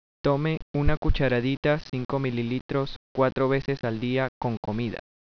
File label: Spanish, Take one teaspoonful four times a day with food; Tome una cucharadita (5 mL) cuatro veces al dia con comida.